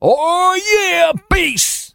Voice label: "oh yeah" bass

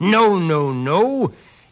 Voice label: no no no, british bloke; Voice; expletives

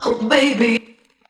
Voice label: "oh baby", female; Voice

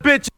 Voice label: "bitch", expletives

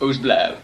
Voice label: whosblah, gibbrish; Voice

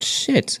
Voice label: "shit", Voice expletives

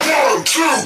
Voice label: "1 2 3" robot; Voice; numbers and letters

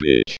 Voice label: "bitch", robot; Voice

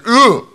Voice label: "uh" EUGH; ooh ahh

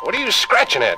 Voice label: "what are you scratching at", voice

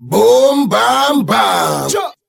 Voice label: "boom bam bam chuk", Voice; ooh ahh

